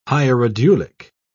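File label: "hierodulic", English, British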